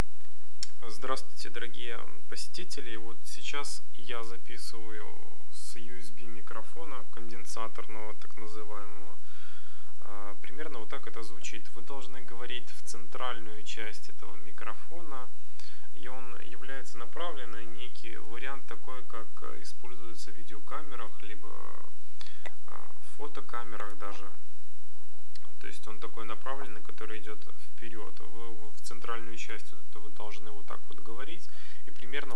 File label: audio test for mic